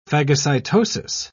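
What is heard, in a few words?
"phagocytosis", English, British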